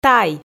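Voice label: "тай", Komi, female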